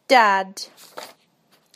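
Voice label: "dad", British English; PictureDictionary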